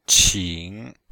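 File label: "qing3", Chinese; Cours-de-Chinois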